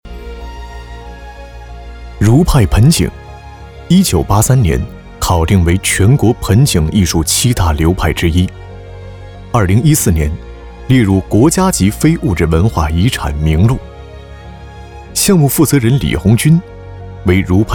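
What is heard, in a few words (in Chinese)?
男国大音【非遗】花木盆景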